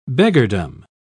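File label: "beggardom", en, uk British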